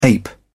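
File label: "ape", British English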